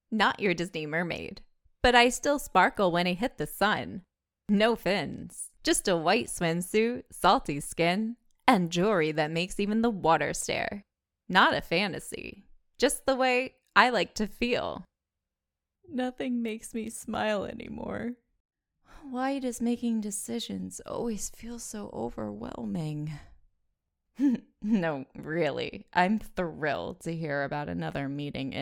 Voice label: Female American Voice

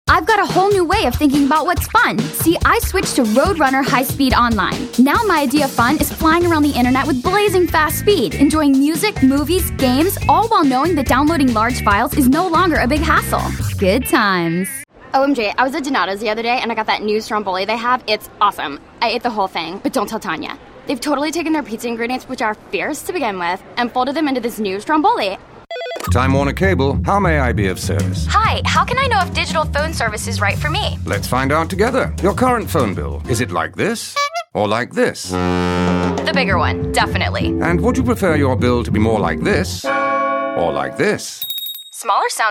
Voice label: Commercial

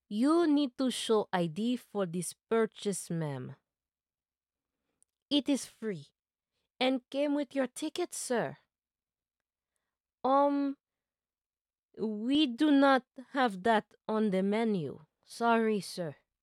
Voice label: Thick Accent Flight Attendant

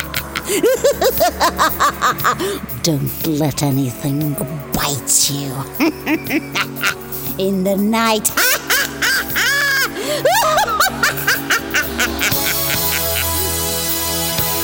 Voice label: Happy Hallowe'en Witch and CACKLING

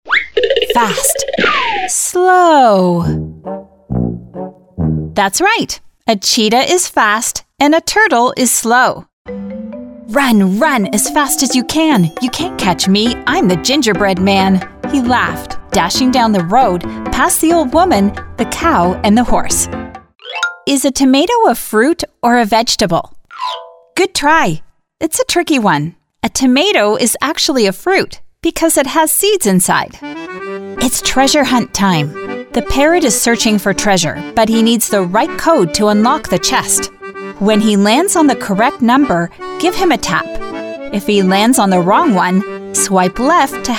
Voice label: eLearning

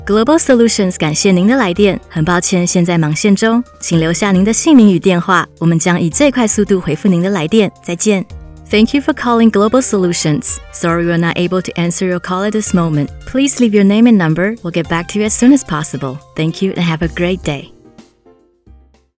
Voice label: voicemail bilingual; female voice in Mandarin Chinese

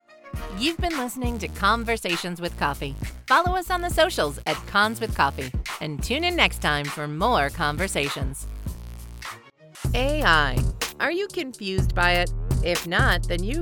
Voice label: Podcast Reel

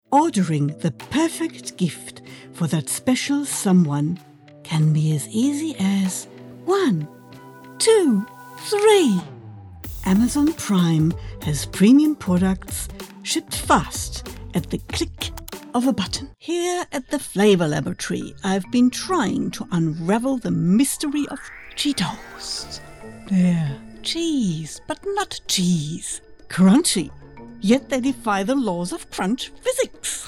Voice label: Commercial English

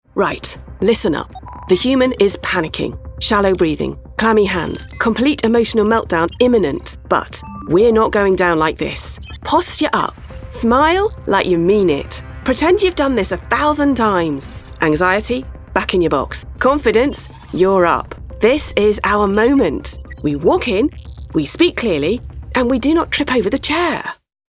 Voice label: Gaming upbeat

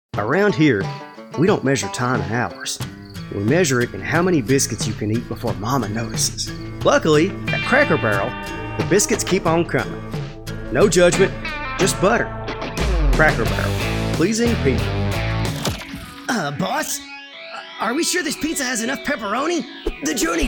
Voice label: Commercial VO, Professional Male Voice Over Actor; Richmond, KY; home studio

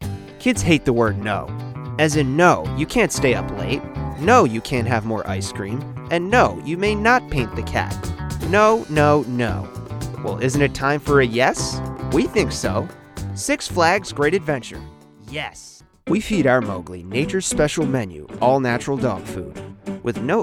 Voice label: Commercial

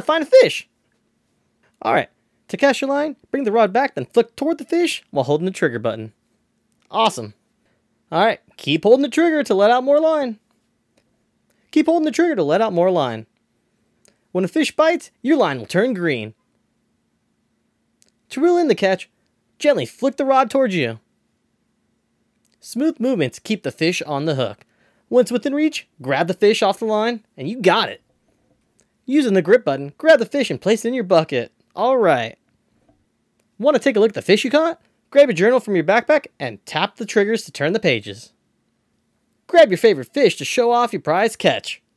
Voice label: Voice Over

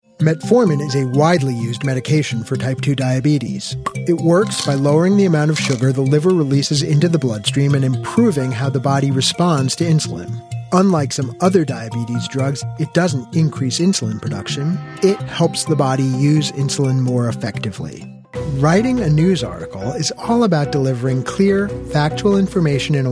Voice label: Narration

